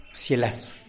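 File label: "psialas", falcon, Tlacochahuaya Zapotec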